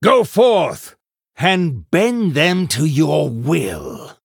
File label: Male Human Shop To Combat